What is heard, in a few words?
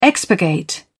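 "expurgate", British English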